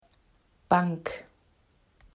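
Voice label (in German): "Bank", German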